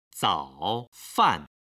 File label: "zaofan", Chinese